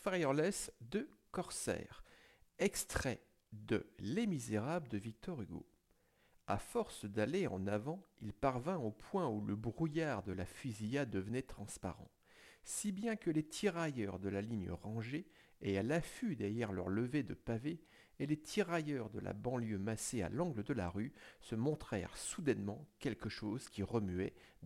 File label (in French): Test Micro; HS80 RGB Wireless